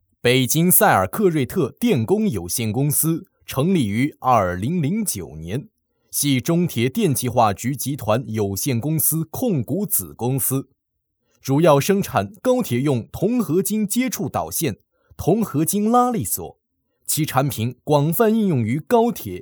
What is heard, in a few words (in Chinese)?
男, 地铁项目宣传片